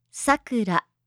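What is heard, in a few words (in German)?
"Sakura", japanische Mädchennamen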